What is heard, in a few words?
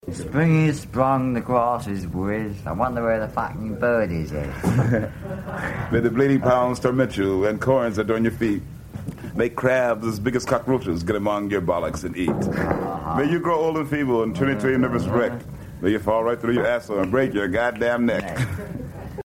Danish Radio Broadcast; 1969-01-10 - Rabalder I Teateret, Copenhagen